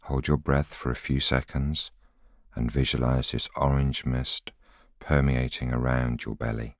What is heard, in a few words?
voiceover